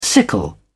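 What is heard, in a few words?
"sickle", British English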